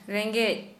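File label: Santali female hungry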